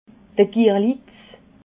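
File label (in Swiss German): "girlitz", Alsatian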